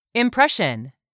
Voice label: "impression", English; 3000 words